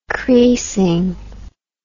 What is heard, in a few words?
"creasing", English